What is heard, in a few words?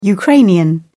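"ukrainian", British English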